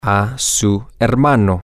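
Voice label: to your brother, spanish, male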